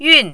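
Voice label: "yun4", mandarin, falling tone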